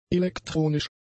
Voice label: "elektronisch", german; adjectives

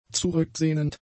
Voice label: "zurücksehnend", German; adjectives

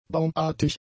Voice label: "baumartig", German; adjectives

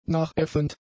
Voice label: "nachäffend", German; adjectives

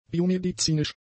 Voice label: "biomedizinisch", German; adjectives

